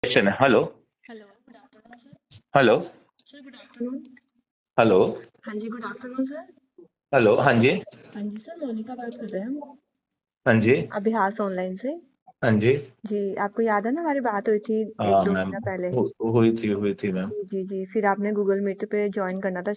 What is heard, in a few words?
call recording